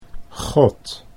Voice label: "god", Dutch